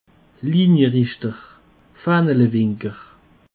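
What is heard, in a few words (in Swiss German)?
"linierichter", Alsatian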